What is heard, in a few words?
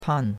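"pan4" Mandarin Chinese syllable, fourth tone; Cours-de-Chinois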